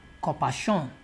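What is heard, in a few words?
"corpershun", Nigerian Pidgin, male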